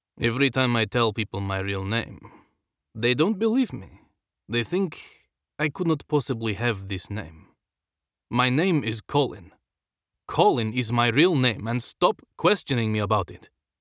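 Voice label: Russian, accent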